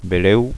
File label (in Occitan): "benleu", Gascon